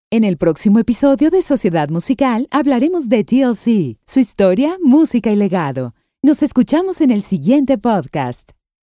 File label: podcast